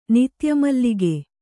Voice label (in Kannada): "ನಿತ್ಯಮಲ್ಲಿಗೆ", Kannada; nitya mallige; noun